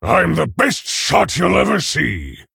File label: Male Orc Ogre; Picked; en